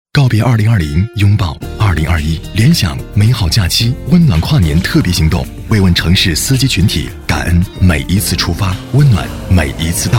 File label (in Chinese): 男 品牌广告 质感; 大气恢弘 高端大气; 联想